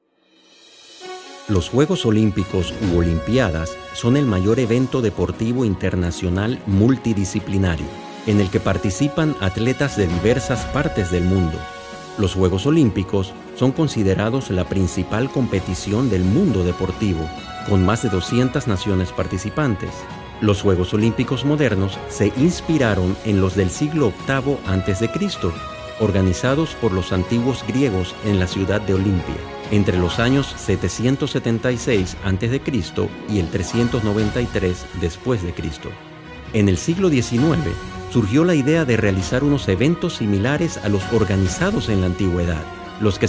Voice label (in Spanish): narra